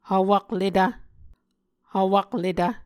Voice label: "hvwakletv", Muscogee